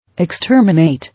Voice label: "Exterminate", English